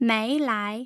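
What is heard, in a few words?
"meilai", Mandarin Chinese, tone pairs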